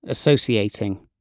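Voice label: "associating" British English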